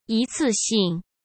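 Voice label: "一次性", Mandarin Chinese; hsk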